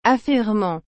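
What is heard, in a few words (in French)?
"affairement", French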